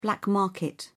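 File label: "black market", British English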